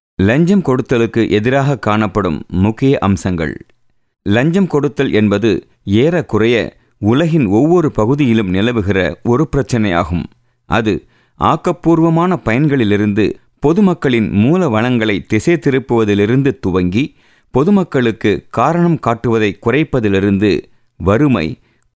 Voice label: Tamil Male Narration; India